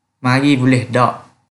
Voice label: Kelantan-Pattani Malay